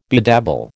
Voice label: "bedabble", American